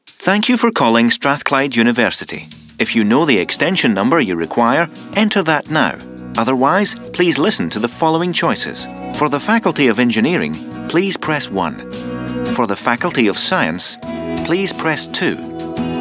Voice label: On Hold, Scottish; Voice-over demos; on hold voices